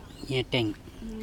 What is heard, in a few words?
"NETEng", Andamanese; dark